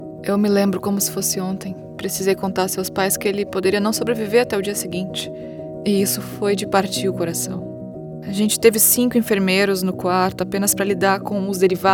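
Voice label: medical pharma dubbing natural conversational female Brazilian Portuguese; GSK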